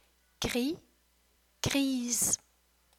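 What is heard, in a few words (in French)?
"gris grise", French; couleur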